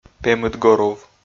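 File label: "пемыдгорув", Komi, male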